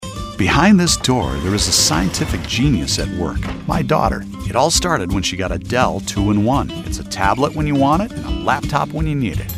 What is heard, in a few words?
Guy Next Door